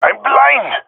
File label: blinded, jungle male epic; player vo; Counter Strike Model Pack; CS:GO